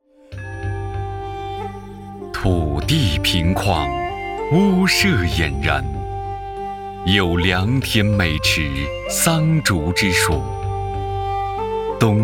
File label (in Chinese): 样音宣传房地产大气激情